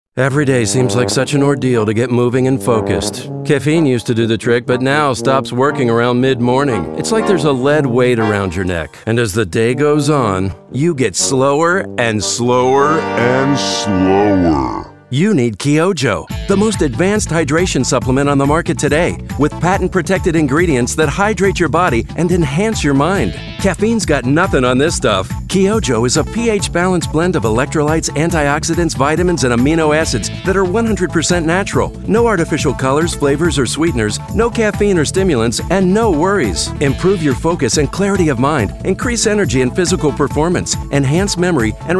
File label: radio commercial; Kiojo